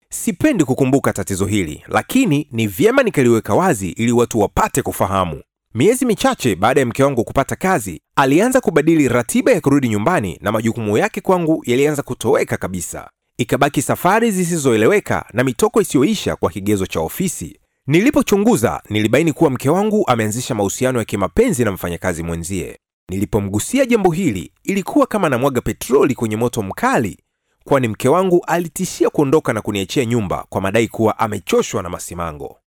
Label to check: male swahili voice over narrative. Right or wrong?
Right